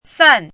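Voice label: "san4" Mandarin Chinese, falling tone; chinese-voice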